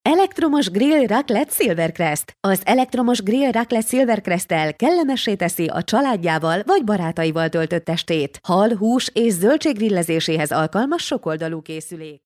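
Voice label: Hungarian, female, explainer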